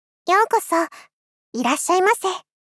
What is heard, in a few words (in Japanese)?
ようこそ、いらっしゃいませ 少女 中音域